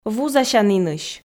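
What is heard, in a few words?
"вузасянінысь", Komi, female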